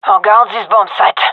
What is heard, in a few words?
guarding bombsite, fem; vo